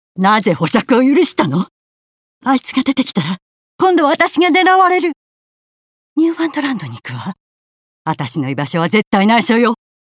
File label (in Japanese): 怒り